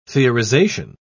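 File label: "theorization", British English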